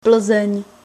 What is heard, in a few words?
plzen, czech